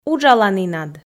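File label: "уджаланінад" Komi, female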